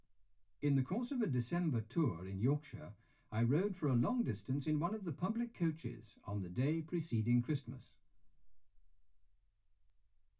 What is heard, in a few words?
male speech english